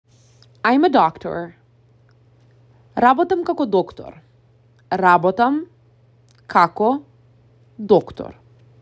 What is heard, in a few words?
I am a doctor, macedonian; the talking macedonian phrasebook